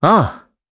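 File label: voice, Japanese; Esker; kingsraid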